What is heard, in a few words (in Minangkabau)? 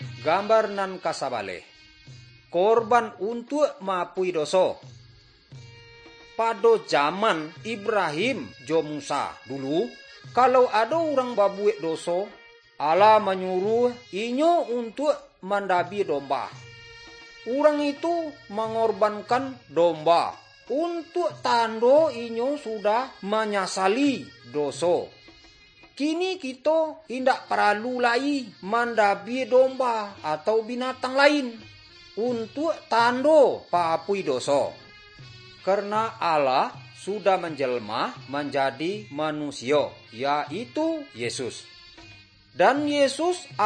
good news minangkabau